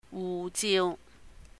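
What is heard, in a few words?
"wuh jiu", Cantonese; passport; 護照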